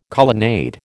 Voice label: "colonnade", American